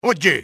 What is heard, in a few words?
"hey", spanish soldier; enemy; XBOX